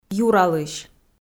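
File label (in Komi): "юралысь" Komi, female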